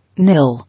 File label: "Nil", English